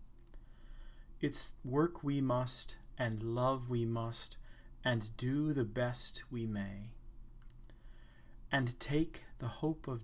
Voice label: its a long way spoken normal